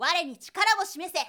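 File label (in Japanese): "warenitikarawosimese", Japanese boy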